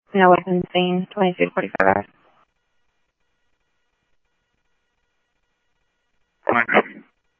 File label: Police, scanner; H-Scottsdale; ScottsdalePolice